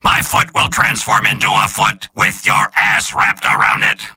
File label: soldier robot; mvm